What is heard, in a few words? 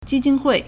"ji1 jin1 hui4", Chinese